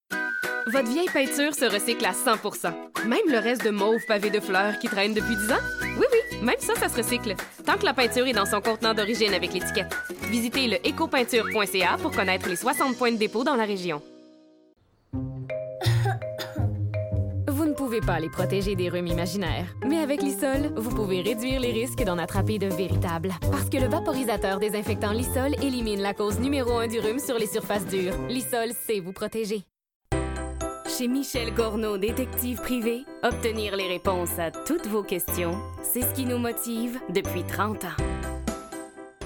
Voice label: voix annonceur

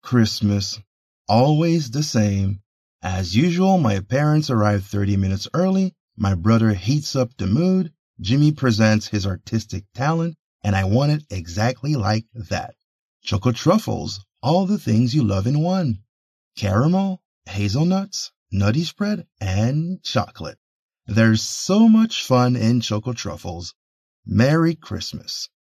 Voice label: anglais CHRISTMAS

